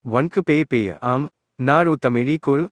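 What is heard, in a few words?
Indian Tamil; iOS 26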